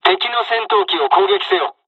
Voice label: dialogs japanese; War Thunder

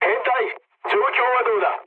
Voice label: jp chat, speech jp

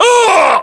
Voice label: death, voiceovers english

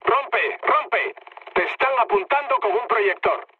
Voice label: searchlite, mood low, speech; War Thunder

